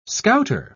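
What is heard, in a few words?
"scouter", British English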